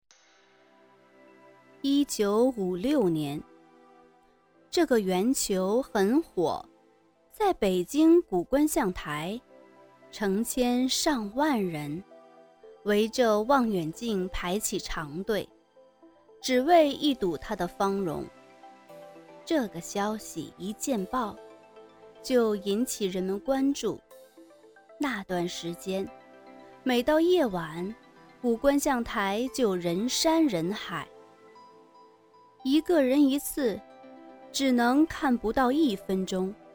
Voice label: 纪录片

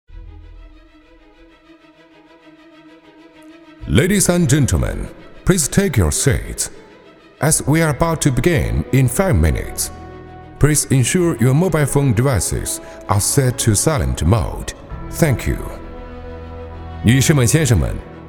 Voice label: 中英现场

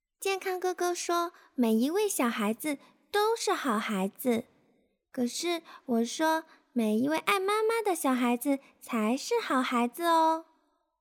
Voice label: 儿童奶音

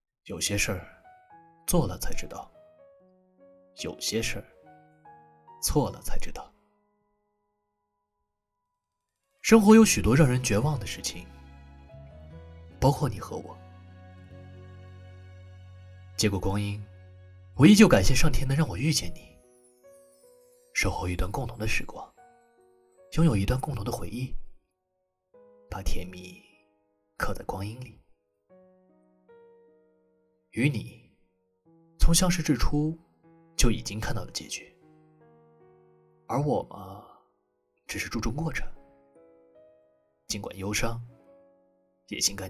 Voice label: 温暖青年

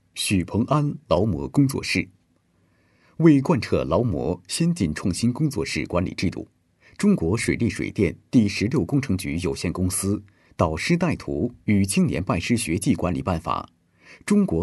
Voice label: 汇报样音, 男配音

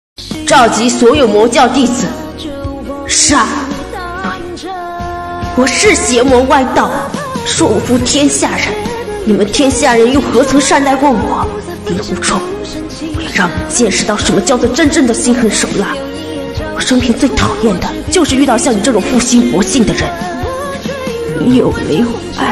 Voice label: 霸气御姐音转换

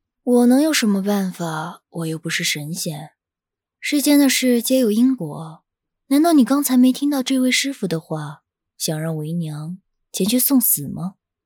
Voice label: 御妈音

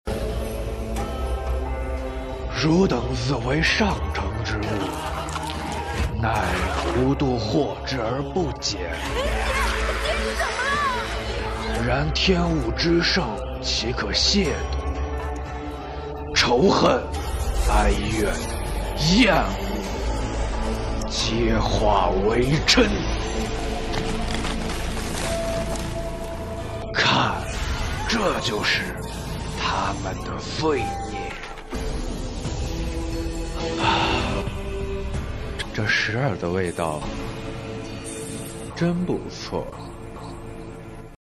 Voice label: 动漫配音

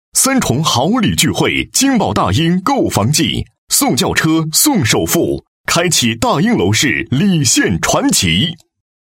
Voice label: 促销 广告; 御龙国际

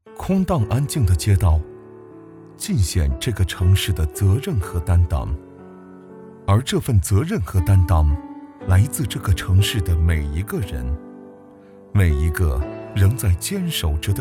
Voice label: 旁白; 抗疫

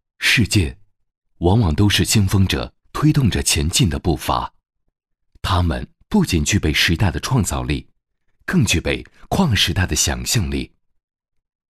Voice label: 汽车宣传

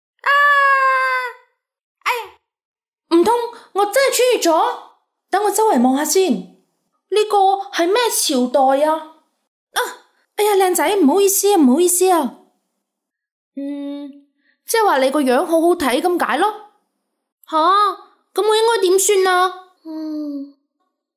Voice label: 动画广告配音宫廷靓仔

